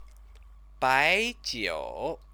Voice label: "baijiu", Chinese; 白 酒; spirits; noun